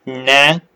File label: short voyels arabic alphabet